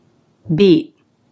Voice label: "beat", English, Stop T